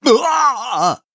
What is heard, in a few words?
Male Human Death